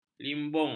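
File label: "limbung", Malay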